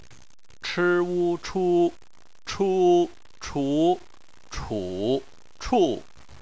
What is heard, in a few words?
"chu", Chinese